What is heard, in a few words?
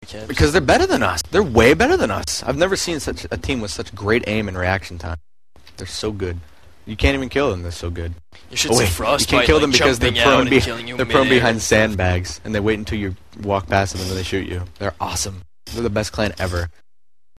undercover ventrilo recordings halftime; Gamewyze; vs mTs; DoD Uncensored